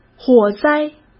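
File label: "火灾", Mandarin Chinese; chinese flashcards; hsk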